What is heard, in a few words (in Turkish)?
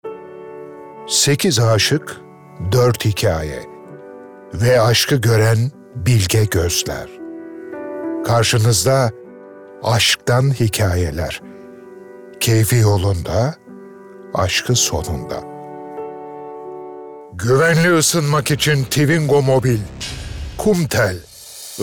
REKLAM